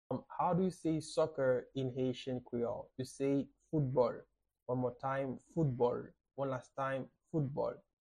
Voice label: "Foutbol" Haitian Creole pronunciation by a native Haitian Creole teacher; How to say Soccer in Haitian Creole